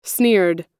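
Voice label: "sneered", American English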